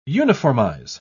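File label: "uniformize", British English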